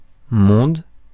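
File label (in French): "monde", French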